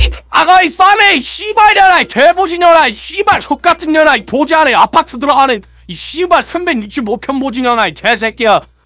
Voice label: jeers; scout